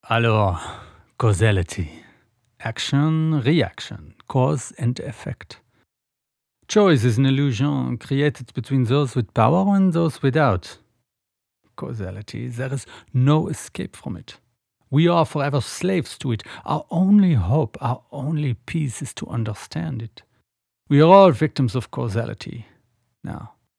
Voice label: Accent French